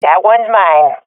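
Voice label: i killed enemy, swat female; Counter Strike Model Pack; CS:GO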